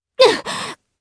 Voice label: Vox Damage jp; Chrisha; kingsraid